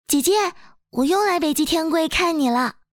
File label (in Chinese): 少女善良温暖